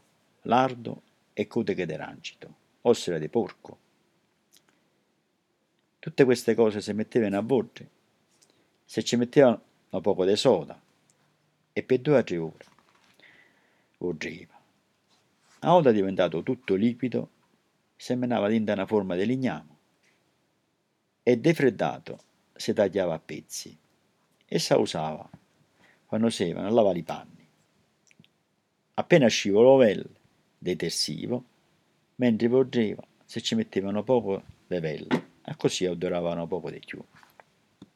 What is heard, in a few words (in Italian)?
Sapone dialetto